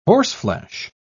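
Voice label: "horseflesh", British English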